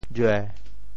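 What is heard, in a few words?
"jue7", Teochew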